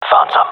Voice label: sees enemy, gendarmerie male; vo

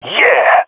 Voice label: cheer, jungle male epic; vo; agents; Source Model Packs; Counter Strike Model Pack; CS2

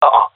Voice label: negative, male; vo

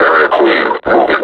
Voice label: reconnoiter search, vo combine suppressor; Half Life Alyx

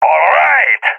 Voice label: cheer

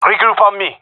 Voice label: request regroup, gendarmerie male; vo; agents

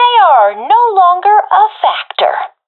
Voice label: i killed enemy, professional fem; vo; agents; Source Model Packs; Counter Strike Model Pack; CS2

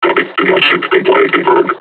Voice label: taunt, combine charger; Half Life Alyx

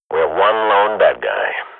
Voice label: radio bot kill count, swat; Counter Strike Model Pack; CS:GO

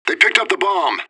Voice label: radio bot bomb pick; fbihrt

